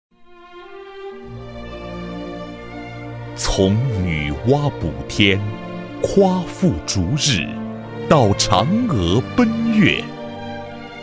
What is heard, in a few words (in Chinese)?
专题 企业 抒情; 中国航天科技有限公司第六研究所